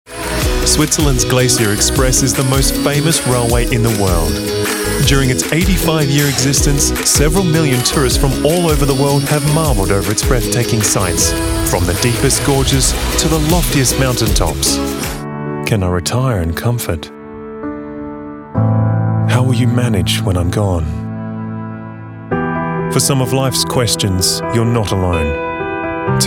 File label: Commercial Reel